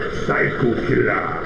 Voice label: Slower Reverbed